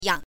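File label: "yang", Mandarin Chinese, neutral tone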